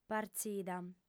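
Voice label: "partzida", Sardinian, female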